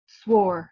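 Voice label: "swor", Proto-Indo-European; *s-uór; Sanskrit स्वर svara; “voice, sound”; Ukrainian свари́ти swariti; “argue, berate”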